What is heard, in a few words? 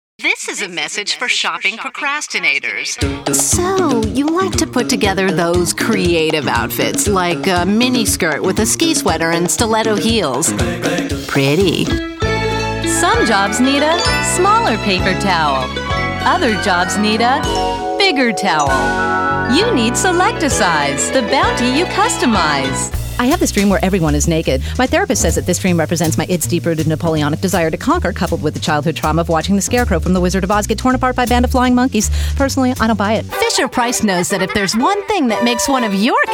English females